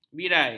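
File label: "birai", Malay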